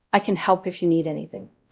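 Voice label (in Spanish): Voice Acting, es, female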